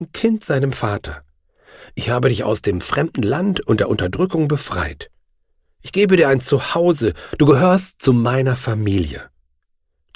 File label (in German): Erzaehlung